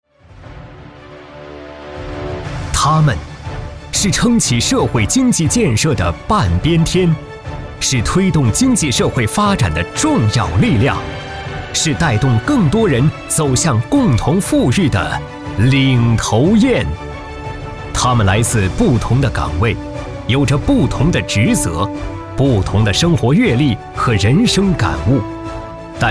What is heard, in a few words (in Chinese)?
男 颁奖 三八节